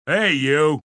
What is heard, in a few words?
"Hey You" Male; Attitude; Voice Box